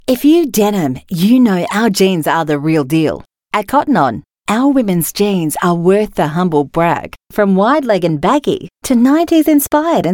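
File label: AU English, Australian English; Cotton On Group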